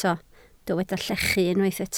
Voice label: "llechu", welsh

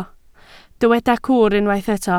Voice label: "cwr", Welsh

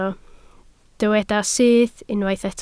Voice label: "syth", Welsh

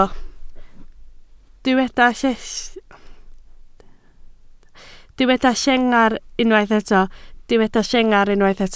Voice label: "llengar", Welsh

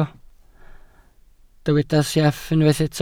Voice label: "sieff", Welsh

